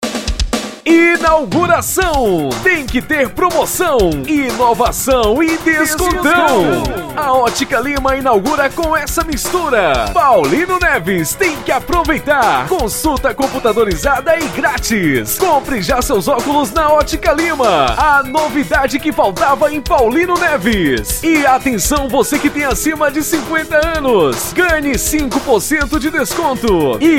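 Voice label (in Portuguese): volante institucional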